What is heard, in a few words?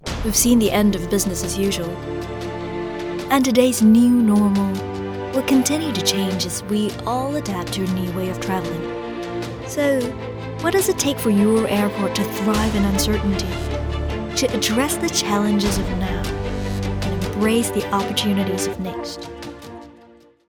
Corporate Narration Professional Informative